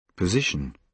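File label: "position", British English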